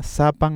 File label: "sapan", Cusco Quechua